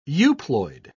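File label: "euploid", British English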